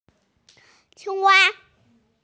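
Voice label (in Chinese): "qing1wa1", Chinese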